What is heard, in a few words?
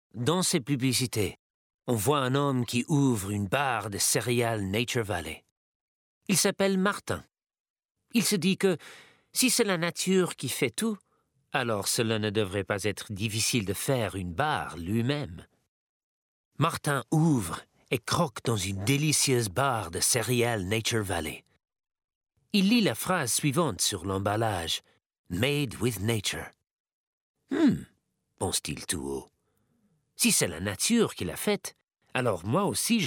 French; Nature Valley